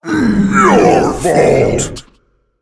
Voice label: Male Ettin Death